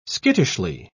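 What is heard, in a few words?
"skittishly", British English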